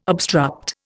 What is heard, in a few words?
"obstruct", Indian